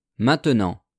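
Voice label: "maintenant", French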